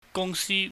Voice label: "gungsi", Cantonese

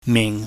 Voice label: "ming", Chinese

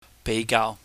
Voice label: "beigaau", cantonese

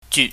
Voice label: "jyut", chinese

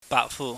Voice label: "baakfu", cantonese

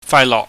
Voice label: "faailohk", cantonese

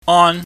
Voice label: "on", Chinese

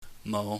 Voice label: "mou", pingyam

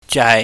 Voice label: "jaai", chinese

